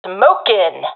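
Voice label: throwing smoke, professional female; vo; agents; Source Model Packs; Counter Strike Model Pack; CS2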